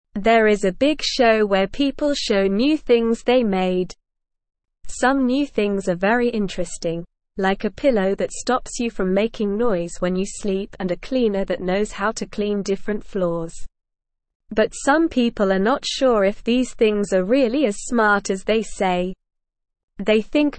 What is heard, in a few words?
Beginner SLOW Reading, English; English Newsroom